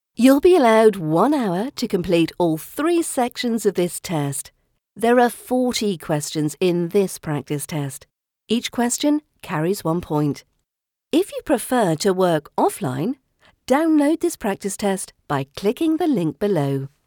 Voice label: A Natural Read; voiceover styles